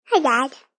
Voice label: Kid Says Hi Dad; Kid talking; Voice

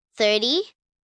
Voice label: Numbers "Thirty", 1 child, girl counting; Talking; Voice Box

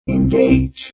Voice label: Robot Voice "Engage"; Robot Type 2 voice; Robot Sound Effects; Lynne Music

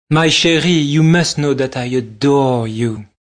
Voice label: My Cherie Adore, Male; Talking; VoiceBox

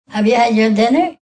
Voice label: Have You Had Dinner, Female

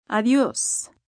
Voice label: "Adios", Goodbyes, female, foreign, Spanish

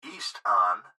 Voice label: Gps Voice, Male

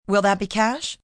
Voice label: Will That Be Cash, female: 'Will that be cash?'; Questions; human voice speaking; Talking

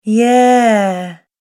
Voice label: "Yeah" Almost Bored Female Voice; Recorded Voice Sound Effects

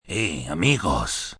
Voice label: Hey Friends, male, Spanish; Greetings; VoiceBox

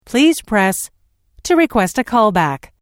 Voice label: Telephone prompt

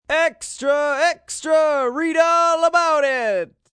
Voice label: Extra Read All, Newspaper boy shouting; Yelling

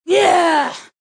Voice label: "Yeah" Female, Exclamation; VoiceBox

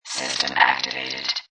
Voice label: Robot Voice "System Activated"; Robot Sound Effects

